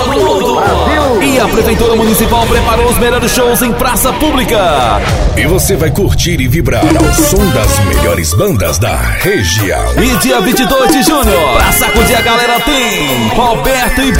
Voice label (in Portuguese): spot festejo; pajeu do piaui